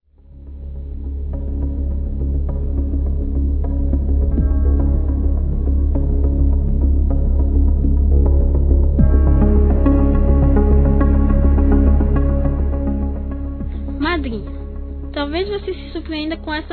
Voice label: Dia Das Mães Para Madrinha Masculino; Telemensagens 2017

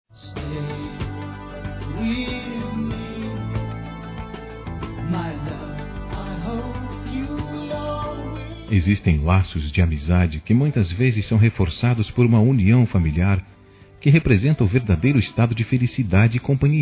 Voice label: Aniversário Primo(a) Masculino; Aniversários; Aniv Familiar

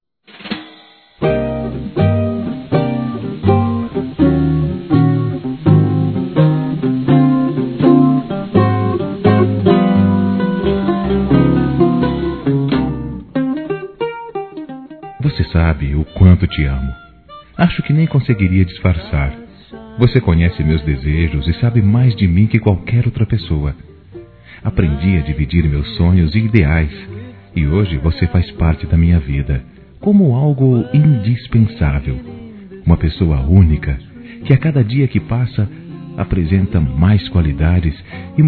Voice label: ROMANTICA, Telemensagens

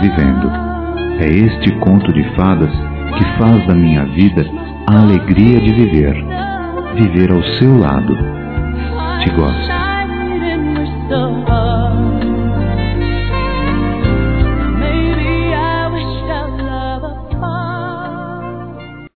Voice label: Romântica Você Masculino; Telemensagens; Dia Dos namorados; Telemensagens 2017

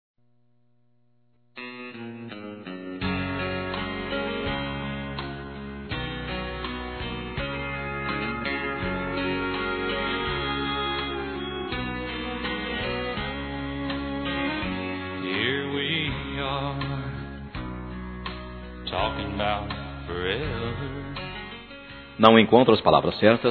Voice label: CASAMENTO TERCEIRO M; Aniversários; ANIVERSARIO romantico; masc

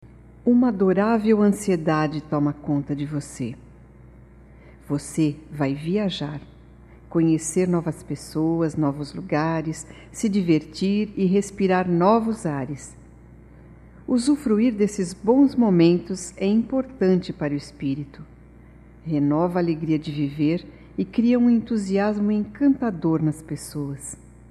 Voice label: BOA VIAGEM NEUTRA - F, Só Voz; fem; Telemensagens 2017

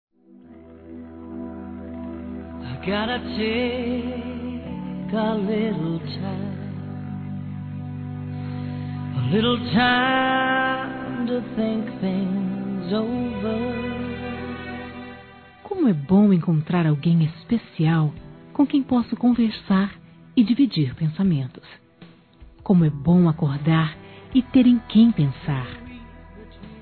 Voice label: Romântica Geral Feminino, Telemensagens; Românticas

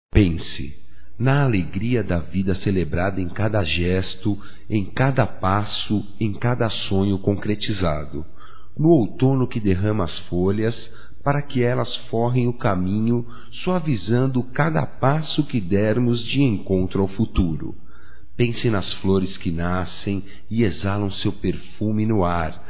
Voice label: REFLEXAO, masc; Amizades; Telemensagens 2017

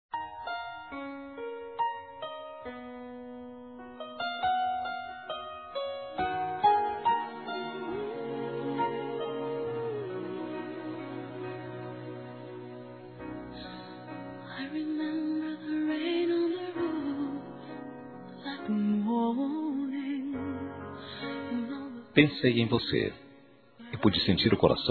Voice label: carinho neutra M, Telemensagens; masc; Telemensagens 2017

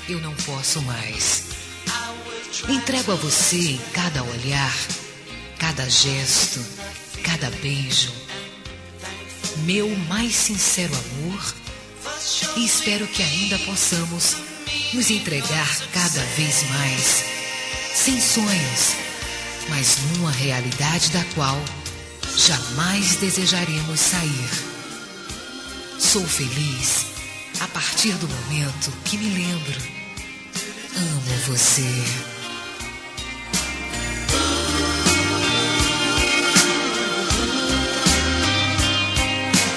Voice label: ROM PARA AMANTES FEM

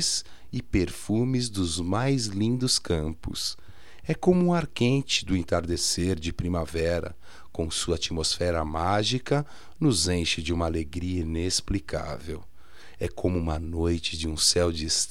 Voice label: Você é muito importante, masc

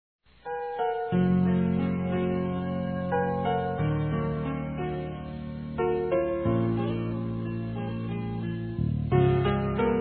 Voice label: ROMANTICA F

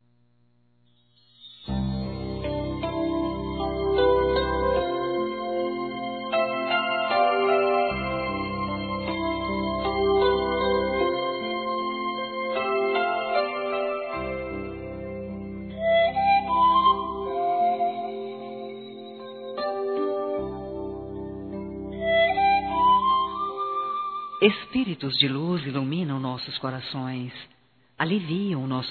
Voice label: CONFORTO P AMIGO FEMININO; Telemensagens; Telemensagens 2017

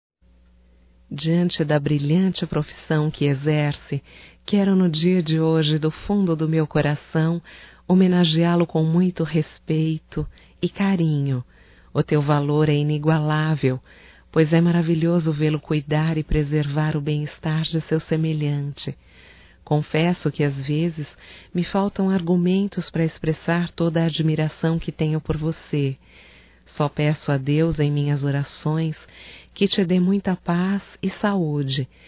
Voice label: Dia Médico Feminino; Datas Mistas; Telemensagens 2017

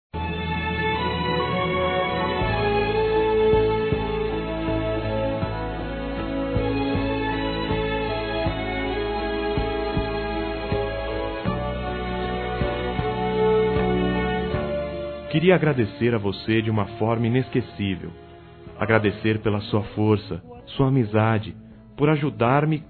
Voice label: AGRADECIMENTO AMIGO(A) M; Amizade; Telemensagens

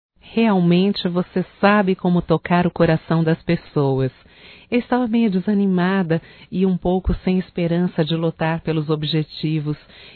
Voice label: AGRADECIMENTO PELA MENSAGEM, fem, Só Voz; Telemensagens; AGRADECIMENTOS

